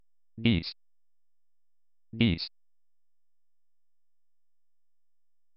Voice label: my name finnish